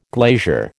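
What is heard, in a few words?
"glazier", American English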